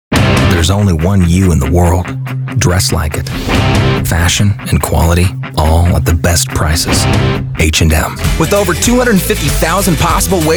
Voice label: commercial, commercial men